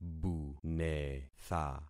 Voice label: "bunetha", concat syllables; Affixing experiment